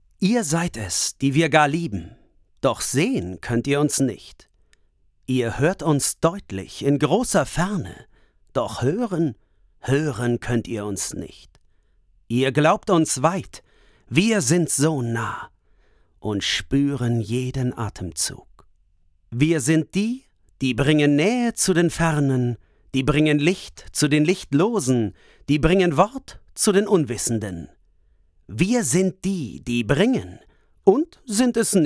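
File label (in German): poetisch, Sprecher, Männer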